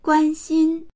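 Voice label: "guan1xin1", Mandarin Chinese; guānxīn; 关心; 気遣う、関心をもつ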